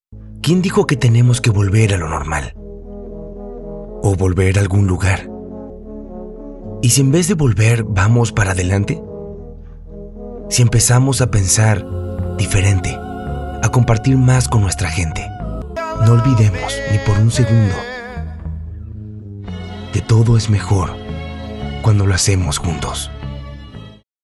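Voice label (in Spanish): inspirador motivacional, espanol argentina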